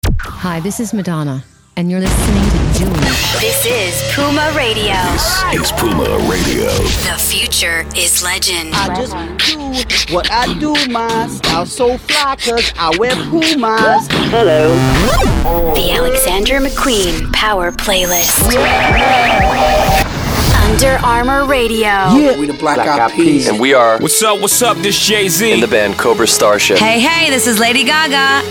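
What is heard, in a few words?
voice over english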